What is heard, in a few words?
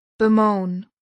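"bemoan", English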